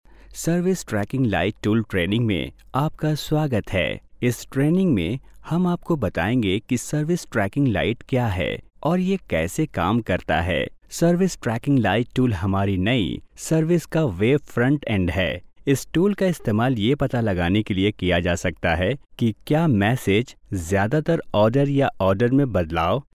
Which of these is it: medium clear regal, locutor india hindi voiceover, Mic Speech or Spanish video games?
locutor india hindi voiceover